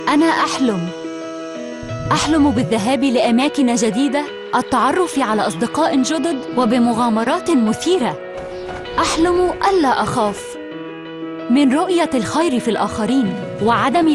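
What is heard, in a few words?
F, female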